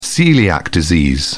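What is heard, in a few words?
"celiac disease", British English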